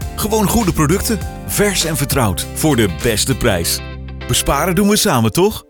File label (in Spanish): Hablante nativo neerlandés; locutor voz en off